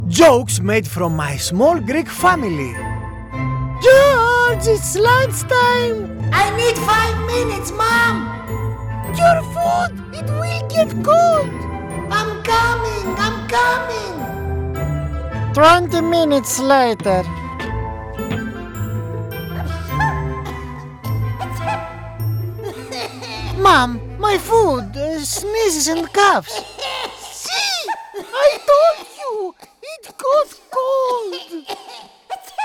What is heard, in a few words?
Various Characters Reel